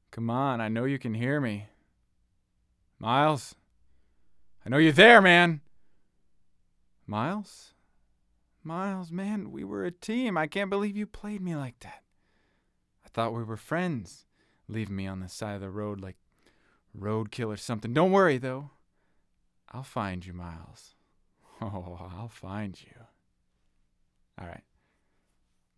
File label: Phone Call; Broken Road ADR